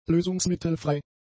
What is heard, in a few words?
"lösungsmittelfrei", German; adjectives